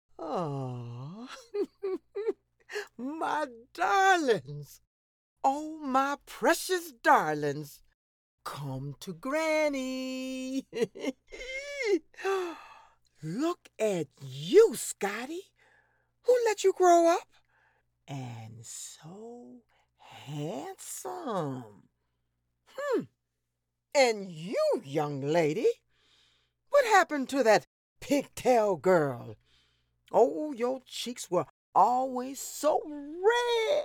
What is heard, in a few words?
animation and film voices